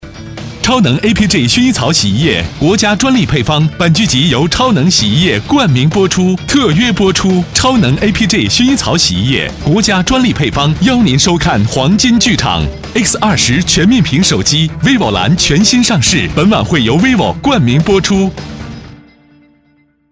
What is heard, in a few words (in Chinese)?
卫视广告